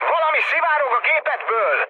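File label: tank damaged, mood med, Hungarian; speech; War Thunder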